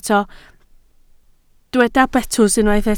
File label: "betws", Welsh